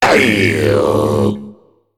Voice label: Male Mech Death